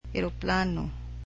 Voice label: "airplane", cebuano

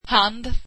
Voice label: swedish hand

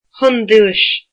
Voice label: "Hindi", Irish

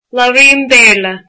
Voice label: I speak English, irish

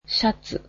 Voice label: "shirt", japanese

